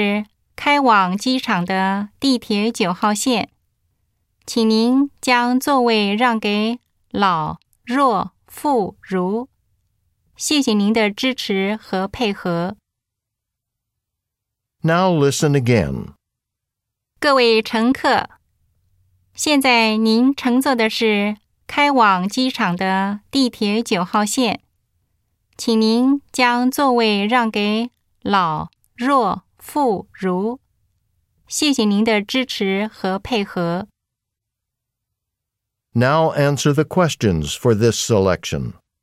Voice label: Public Announcement